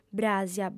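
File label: "brasa", lingua sarda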